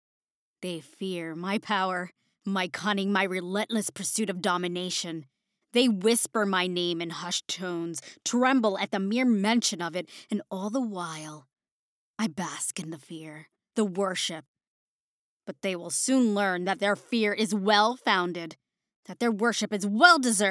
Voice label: Evil Queen